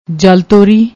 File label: "jaltori", Punjabi